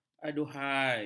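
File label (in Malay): "aduhai", Malay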